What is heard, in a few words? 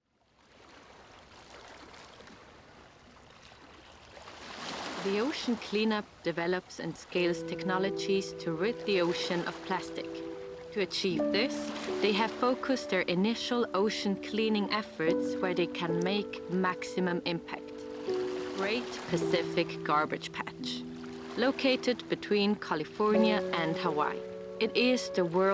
female German and Swiss German English with soft German accent documentary